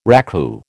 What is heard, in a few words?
"raku", American